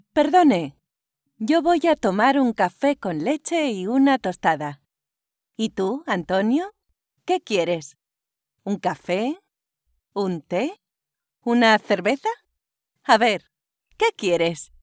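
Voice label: European Spanish educational; GoLocalise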